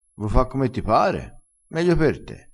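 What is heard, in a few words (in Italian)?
"meglio per te", dialettale crespinese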